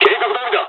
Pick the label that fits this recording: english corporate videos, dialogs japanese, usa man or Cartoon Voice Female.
dialogs japanese